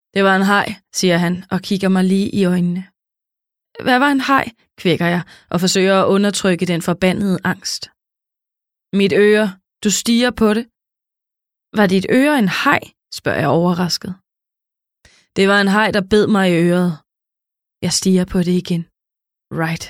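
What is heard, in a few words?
Danish, Audiobook, female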